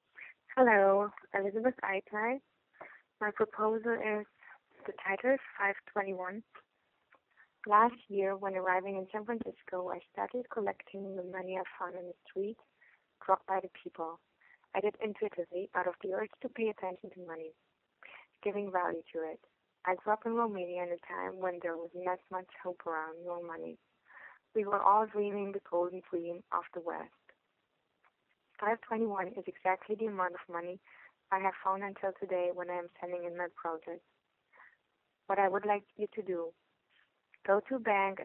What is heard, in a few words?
english